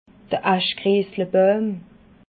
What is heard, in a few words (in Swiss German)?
"aschgriesslabauim", alsace